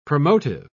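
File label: "promotive", British English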